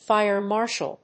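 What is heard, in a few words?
"fire marshall", English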